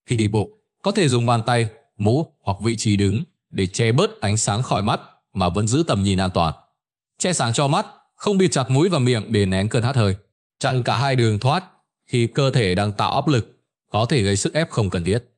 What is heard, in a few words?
narration; Vi Sao Anh Nang Co The Khien Ban Hat Hoi; StickerMan